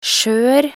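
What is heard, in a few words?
"skjør", Norwegian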